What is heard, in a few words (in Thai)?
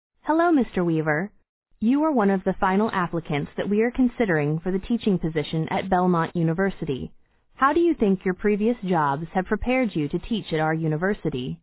บทพูดทั่วไป 8000 hz